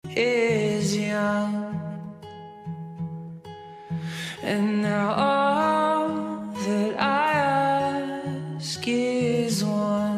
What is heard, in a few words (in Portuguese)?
esposa, voz masculina; dia dos namorados para esposa voz masculina